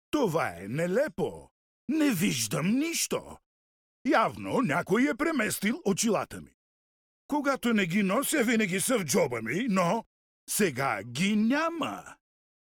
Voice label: bulgarian m character; voice demos